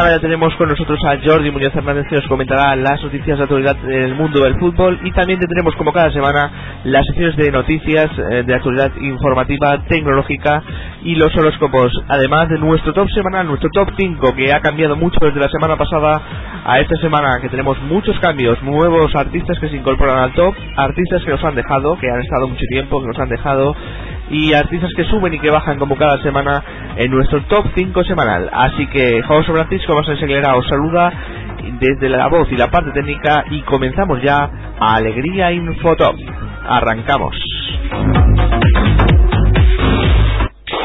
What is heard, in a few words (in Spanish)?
programa radio alegria musical; "Alegría Musical", radiofórmula que se distribuyó de forma gratuita